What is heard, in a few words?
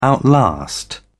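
"outlast", British English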